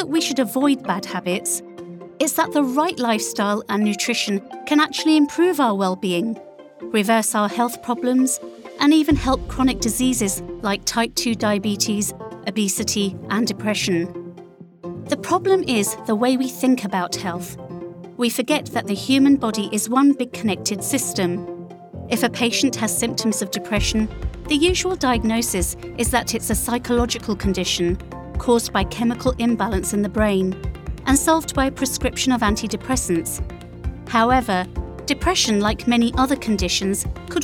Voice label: Narration Healthy lifestyles